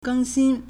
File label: "geng1xin1", Chinese